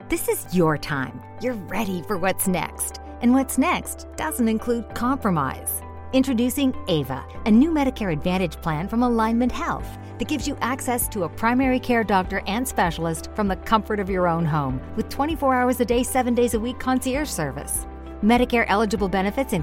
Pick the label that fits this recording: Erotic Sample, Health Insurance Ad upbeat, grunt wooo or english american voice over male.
Health Insurance Ad upbeat